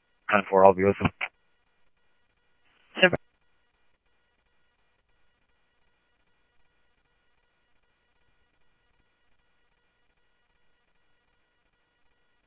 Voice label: Police, scanner audio trunk; H-Scottsdale

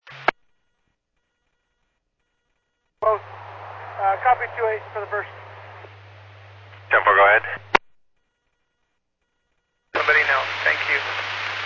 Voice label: Medevac, scanner; NativeAirAmb

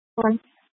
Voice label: PD Dispatch, scanner; Paradise Valley PD

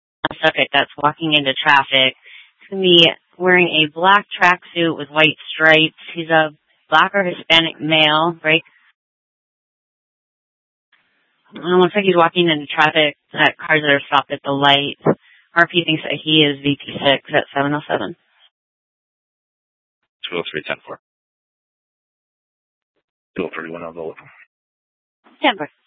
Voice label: Police South Dispatch; Regional Wireless Cooperative (RWC) - Simulcast H- Scottsdale

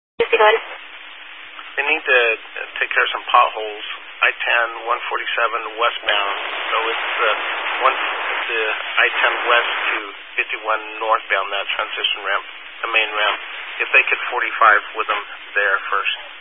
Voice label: Highway Patrol, scanner

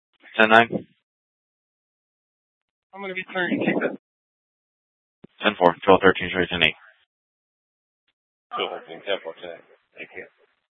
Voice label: Dispatch; Scottsdale

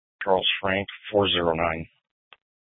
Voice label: Sheriff scanner; Shrf Mnt (Parks)

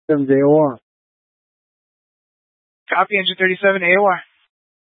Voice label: Fire Dispatch; K8 - FDCOM 8 - South; C- Chandler

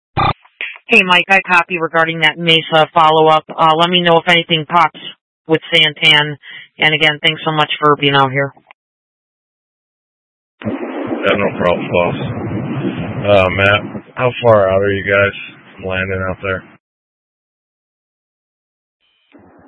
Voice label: Mutual Aid-Intersystem Interop A, scanner; AMBER Alert

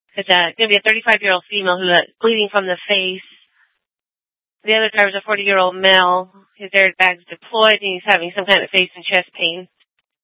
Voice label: Mesa PD Fiesta Dispatch

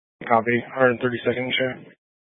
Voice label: Scottsdale Police, Simulcast

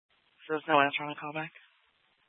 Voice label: Mesa PD Dispatch; Fiesta

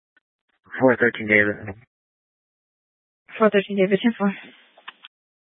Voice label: Police Dispatch

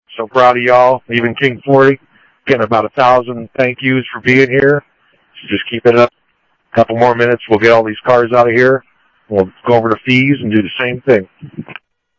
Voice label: Interop Mutual Aid, scanner; ThompsonPeakHigh

